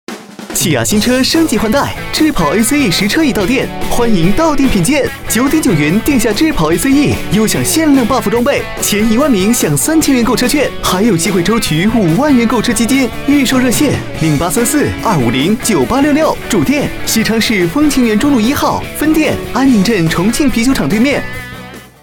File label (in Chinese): 汽车广告